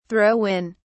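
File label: "throw in", British English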